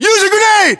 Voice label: order action grenade, US

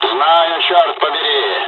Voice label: dialog, dialogs russian; us midway; War Thunder

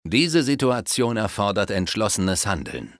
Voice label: Decisive Action, Speech German Advisors; Civ5

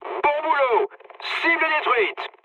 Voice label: bomb success mood med, speech fr; War Thunder

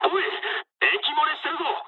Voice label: damaged water mood med, speech jp; War Thunder

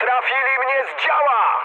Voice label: damaged aa mood high, speech pol; War Thunder

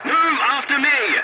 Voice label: voice message follow me, english; War Thunder

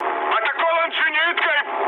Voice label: damaged aa, mood med, speech ru; War Thunder

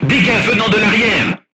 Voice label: voice message shot back, crew tanks french

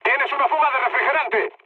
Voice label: damaged water, mood high, speech; War Thunder

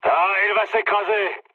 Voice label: aircraft destroyed mood low, speech fr

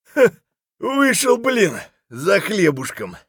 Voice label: scav mutter, voice; Escape from Tarkov; scav4

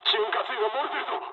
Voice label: damaged oil mood low, speech jp; War Thunder

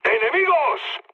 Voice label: enemy detected mood low; speech; War Thunder

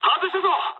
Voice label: bomb failure mood high, speech jp; War Thunder

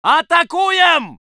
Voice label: voice sov infantry

